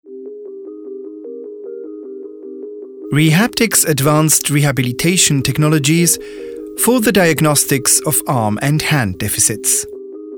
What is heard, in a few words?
medical eng